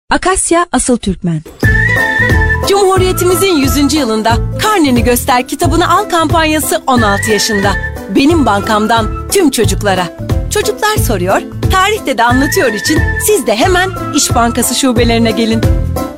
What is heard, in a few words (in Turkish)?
turkce kadin